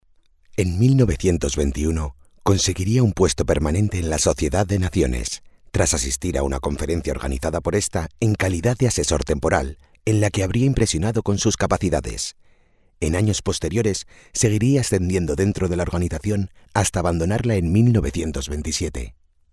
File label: locutor spanish voiceover